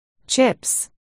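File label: "chips", spoken word, articulation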